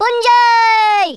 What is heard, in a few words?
"bungee", Brummie; Worms Armageddon